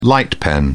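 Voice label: "light pen", English, British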